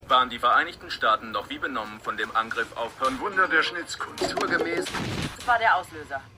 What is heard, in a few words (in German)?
TV Sprecher; The Wire